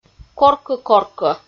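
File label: "коркӧ-коркӧ", Komi, female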